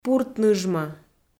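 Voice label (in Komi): "пурт ныжмӧ", Komi, female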